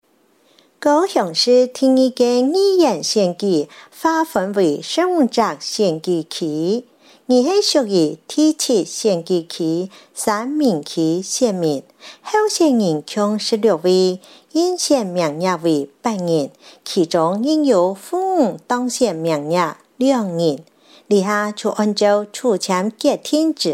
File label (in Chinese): 客語; 高雄市; 高雄市市長議員有聲選舉公報; 第七選區